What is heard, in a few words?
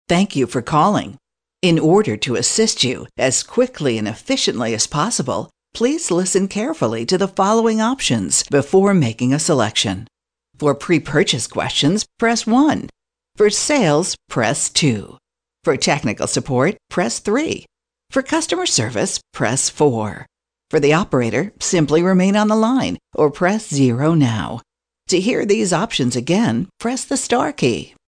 female ivr